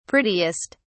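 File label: "prettiest", British English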